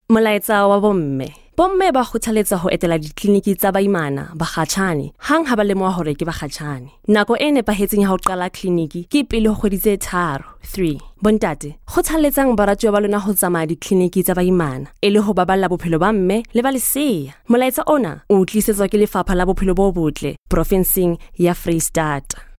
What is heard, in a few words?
Sesotho female